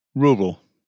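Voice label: "rural", British English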